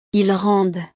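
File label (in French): "ils rendent", French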